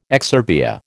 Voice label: "exurbia", American